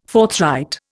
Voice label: "forthright", Indian